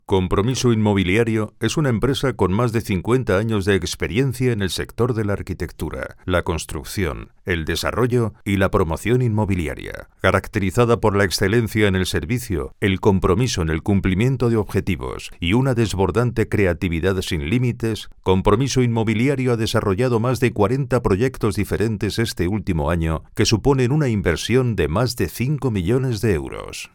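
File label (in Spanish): corporativo España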